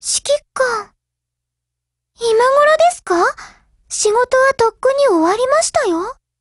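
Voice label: hello, Japanese; GF Alarm; Carcano1938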